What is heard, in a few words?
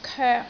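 "koek3", Cantonese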